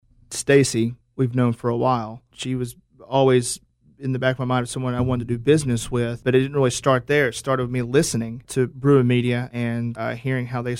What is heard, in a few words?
TESTIMONIAL